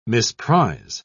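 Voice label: "misprise", British English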